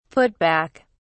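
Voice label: "put back", British English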